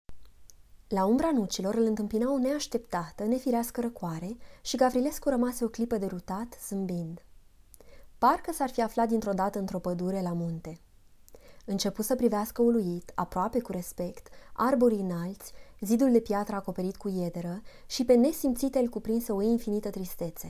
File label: Romanian